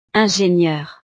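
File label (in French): "ingenieure", French; profesiones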